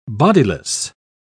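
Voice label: "bodiless", British English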